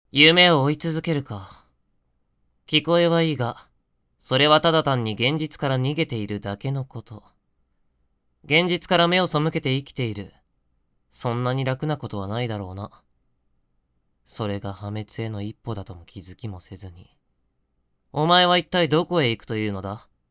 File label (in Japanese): 声質低; 破滅への一歩